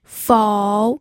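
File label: "fou", pinyin, third tone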